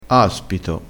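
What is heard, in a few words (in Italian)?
"aspito", vocabolario dialettale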